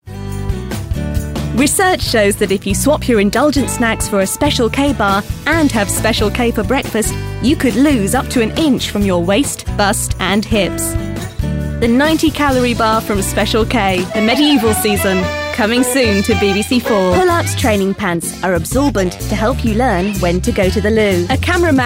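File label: British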